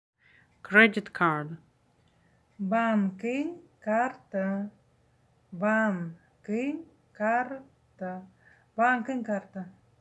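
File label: Credit card, Buryat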